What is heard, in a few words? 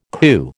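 "coo", American English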